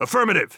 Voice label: affirmative, heavy; vo; Counter Strike Model Pack; CS:GO; phoenix coop